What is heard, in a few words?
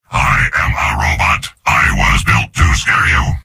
soldier robot; video games; source engine; tf; mvm